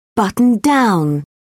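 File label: "buttoned-down", English, British English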